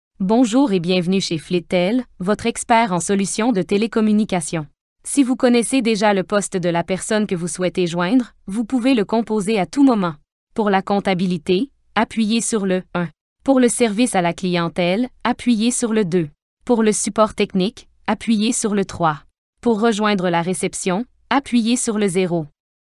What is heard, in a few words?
NATURAL VOICE